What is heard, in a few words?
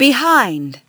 LoFi Words, LoFi Vocals